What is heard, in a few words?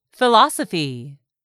"philosophy", english; 3000 words